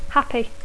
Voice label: "happy", English; adjectiu; content